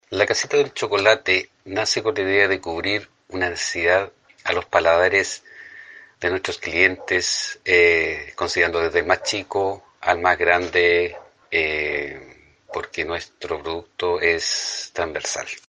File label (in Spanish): Cuña